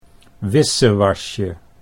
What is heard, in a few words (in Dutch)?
"wissewasje", Dutch